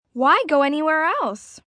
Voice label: Why Go Anywhere Els, Sales Pitch, 1 girl, 12 yrs; Talking Sound Effects; VoiceBox; Twistedtracks